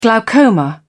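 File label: "glaucoma", British English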